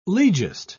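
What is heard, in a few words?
"legist", British English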